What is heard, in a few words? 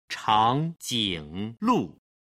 "changjinglu", Chinese